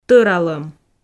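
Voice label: "тӧралӧм", Komi, female; audio dict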